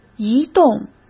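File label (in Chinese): "移动", Mandarin; chinese-flashcards; hsk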